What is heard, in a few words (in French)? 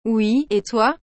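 "Oui et toi?", French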